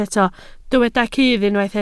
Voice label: "cudd", Welsh